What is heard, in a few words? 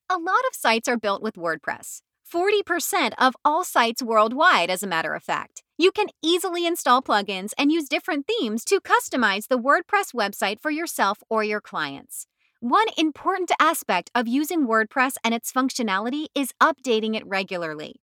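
wordpress explainer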